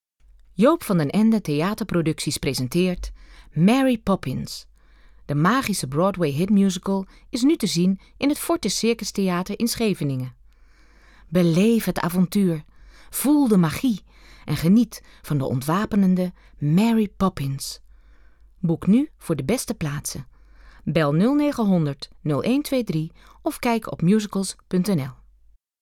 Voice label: voice over